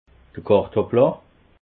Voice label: "kartepla", alsace

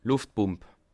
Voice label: "luftpump", Alsace

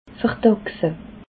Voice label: "verdachse", alsace

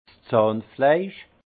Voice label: "zahnfleisch", alsace; vin; gencives

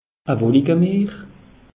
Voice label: "wolikemeer", alsace; meteo

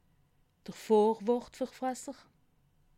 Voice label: "vorwortferfasser", alsace

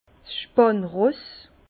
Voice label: "spannross", Bas Rhin; vin; cheval de trait

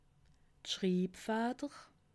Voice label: "schribfatter", alsace

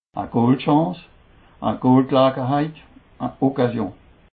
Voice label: "goolchance", alsace

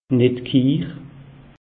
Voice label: "nittkiir", alsace; meteo

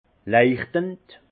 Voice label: "leuchend", Alsace; vin; lumineux

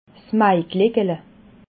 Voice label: "maigleckle", alsace

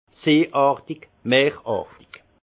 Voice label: "seeartig", alsace; vin; marin

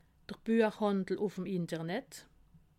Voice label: "buechhandel", alsace

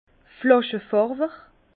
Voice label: "flaschefarwer", Bas Rhin; vin; colorant de bouteille

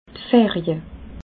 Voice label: "ferie", alsace

